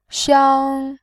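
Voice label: "xiang", Mandarin Chinese, tone 1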